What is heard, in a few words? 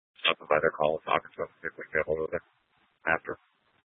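Tempe police department, scanner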